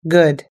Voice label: "good", us english

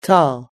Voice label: "tall", us english

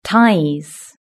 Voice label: "ties", British English

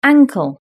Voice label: "ankle", British English

